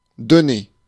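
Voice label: "donner", French; Mots francais phonemes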